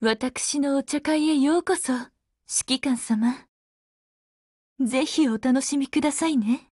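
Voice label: Halloween HELLO Japanese, Voice; GF Alarm; Intruder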